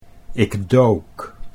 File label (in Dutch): "ik dook", Dutch